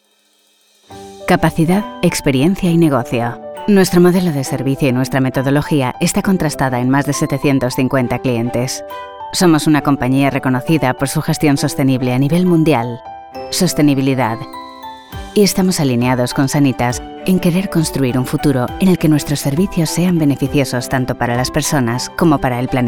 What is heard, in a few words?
spanish corporate videos